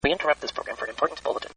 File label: BULLETIN, Broadcast; Voice